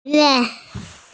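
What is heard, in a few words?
"hu die", Mandarin Chinese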